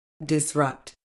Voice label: "disrupt", uk